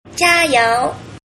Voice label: "jiayou", Mandarin